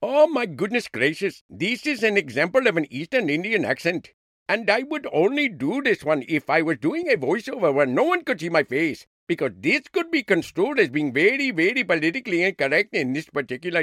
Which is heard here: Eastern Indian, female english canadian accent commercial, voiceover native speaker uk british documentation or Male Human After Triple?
Eastern Indian